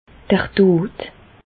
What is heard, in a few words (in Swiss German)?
"dot", Alsace, Bas Rhin; medecine; mort le